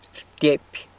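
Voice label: "rtyepy", whistles, San Lucas Quiaviní Zapotec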